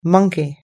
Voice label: "monkey", US English